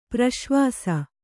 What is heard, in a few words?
"ಪ್ರಸವಿಸು", kannada